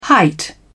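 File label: "height", British English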